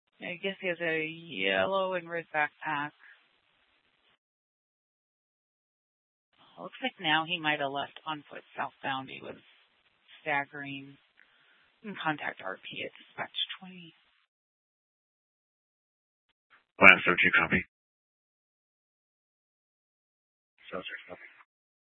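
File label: Mesa Police Department Superstition Dispatch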